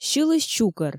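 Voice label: "сьылысь чукӧр", Komi, female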